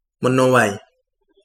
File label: river, Kapingamarangi